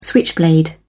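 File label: "switchblade", British English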